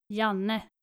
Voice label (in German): "janne", nordische jungennamen